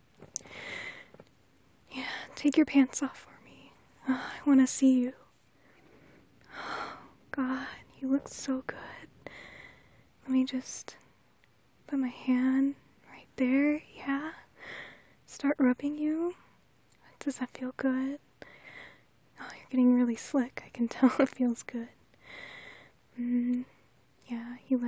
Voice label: F4A, Gentle Voice, Some Moans and Sighs, Stroking You; Take Off Your Pants; Short but Sweet